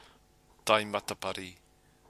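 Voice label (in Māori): "tai matapari", Māori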